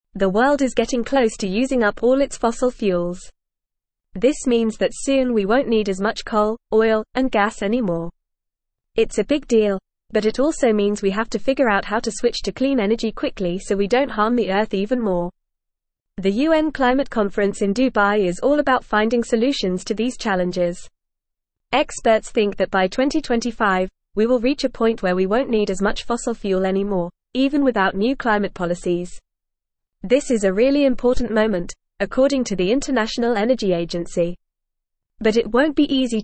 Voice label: English Upper Intermediate FAST Reading; Approaching Peak Fossil Fuels Urgency for Renewable; English Newsroom